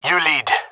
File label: following friend, gendarmerie male; vo